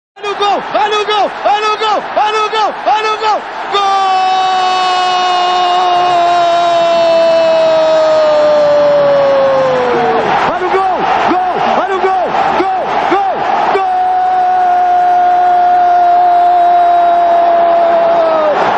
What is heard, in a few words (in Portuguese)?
Vinheta Gooooooooollllllll; Brega; Zuacao; Vinhetas